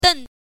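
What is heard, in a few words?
"den" fourth tone, Mandarin Chinese pinyin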